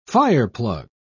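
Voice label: "fireplug", British English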